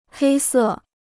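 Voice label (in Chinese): "黑色" Chinese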